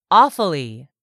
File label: "AWFULLY", english; 3000 words